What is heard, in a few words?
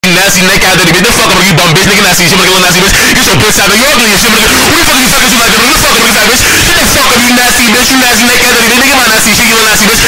Fast Cussing Out